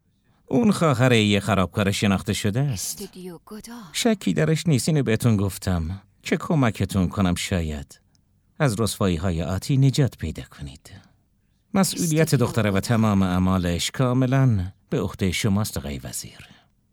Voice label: dub